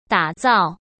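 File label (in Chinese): "打造", Mandarin Chinese; chinese-flashcards; hsk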